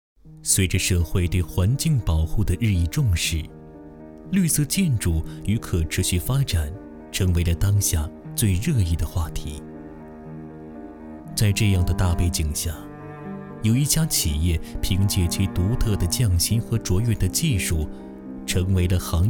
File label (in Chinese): 男声专题短宣传片大气沉稳; 福州建材